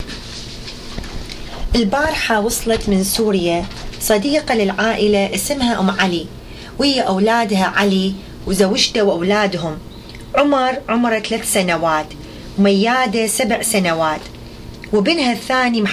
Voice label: Dialogue about Iraq before and now, Iraqi Dialect